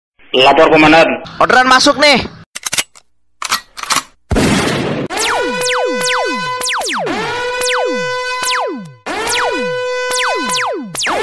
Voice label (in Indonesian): nada dering; Lapor Komandan Orderan Masuk